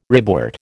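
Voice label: "ribwort", American English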